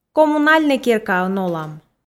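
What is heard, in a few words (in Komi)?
коммунальнӧй керкаын олам, Komi, female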